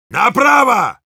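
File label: Heavy head right, Russian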